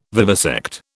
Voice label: "vivisect", British